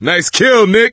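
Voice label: nice shot, voice, male; Left 4 Dead 2; coach; gambler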